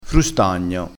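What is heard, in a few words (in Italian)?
"frustagno", dialettale crespinese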